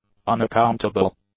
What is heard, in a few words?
"unaccountable", English; adjectives